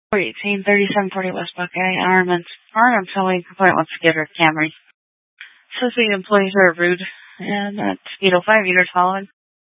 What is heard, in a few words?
Phx PD Dispatch, scanner